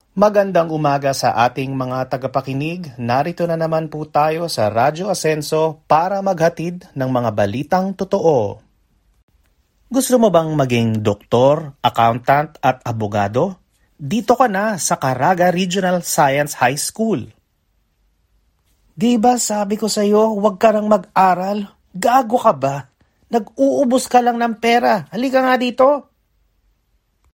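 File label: Tagalog General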